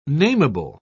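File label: "namable", British English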